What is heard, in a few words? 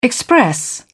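"express", British English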